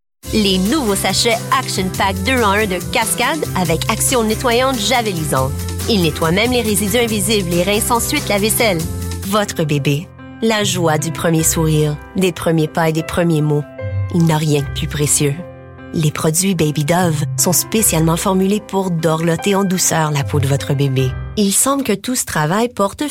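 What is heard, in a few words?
female French Canada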